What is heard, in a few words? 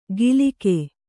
"ಗಿಲಿಕೆ", Kannada